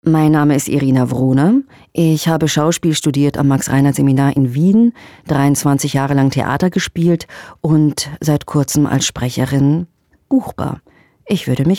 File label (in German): Vorstellung DE BER